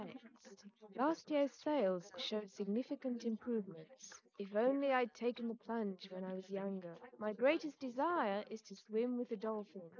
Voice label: female inst sim; SiSEC11; underdetermined